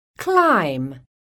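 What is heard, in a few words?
"CLIMB", English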